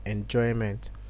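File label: "enjoyment", Nigerian Pidgin, male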